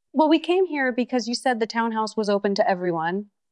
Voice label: Voice Acting es F